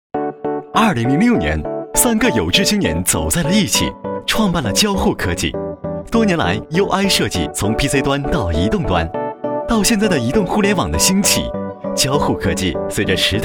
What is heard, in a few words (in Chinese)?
男 科技 轻松 时尚; 交互科技UI设计